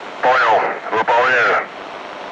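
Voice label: Speech Sound Russian Common Landing; Roger!; DCS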